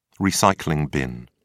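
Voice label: "recycling bin", British English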